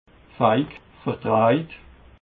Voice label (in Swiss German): "feig", alsace